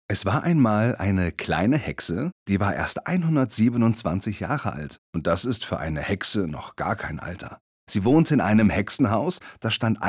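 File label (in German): Erzählung Hexe; LOFT VOICES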